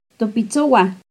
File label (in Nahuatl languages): "topitzohuan", Náhuatl; nuestros puercos; NAHUATLAHTOLLI